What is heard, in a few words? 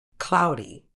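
"cloudy", British English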